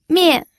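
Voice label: "mian", fourth tone, pinyin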